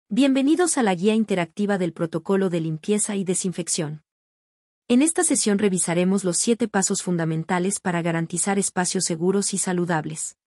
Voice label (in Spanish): locucion